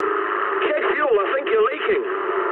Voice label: eng tank damaged mood med, speech eng